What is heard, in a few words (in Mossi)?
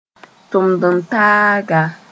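"tʋmd-n-taaga", Mooré; co-worker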